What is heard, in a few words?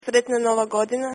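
new year Croatian; Sretna Nova godina!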